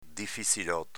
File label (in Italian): "difizziloto", dialetto veneto; difizilōto avv. piuttosto difficile